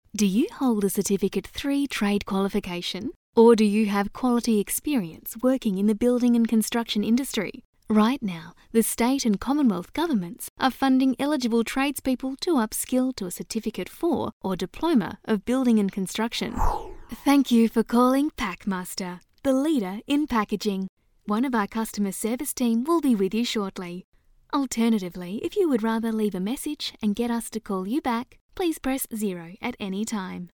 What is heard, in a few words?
Corporate Friendly